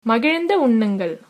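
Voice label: bon appetit, tamil, female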